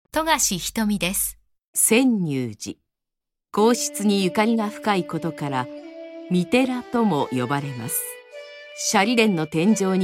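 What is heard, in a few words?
female tv program, English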